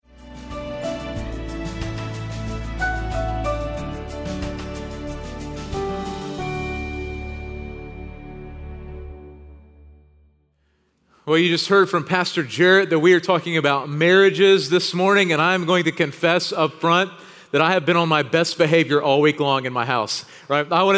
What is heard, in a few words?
Sermon English